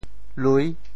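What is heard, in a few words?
"lui6", Teochew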